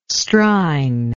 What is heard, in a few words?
"strine", English